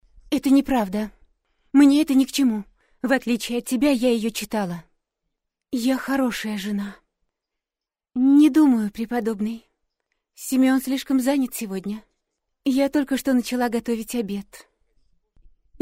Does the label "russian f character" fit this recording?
Yes